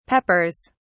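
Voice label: "peppers", British English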